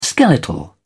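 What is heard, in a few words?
"skeletal", British English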